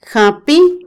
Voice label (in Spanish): "bendecir", Otomí, mujer; Religión; Vocabulary; INALI